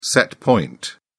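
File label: "set point", British English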